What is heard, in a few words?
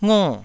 "ngo4", Taiwanese syllable